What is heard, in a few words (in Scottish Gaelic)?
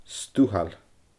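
"stuthail", Scottish Gaelic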